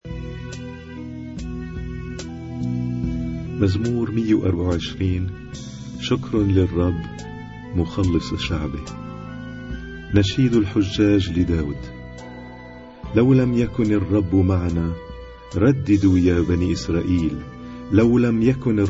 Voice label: Arabic Psalm Zaboor